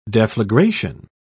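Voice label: "deflagration", British English, dictionary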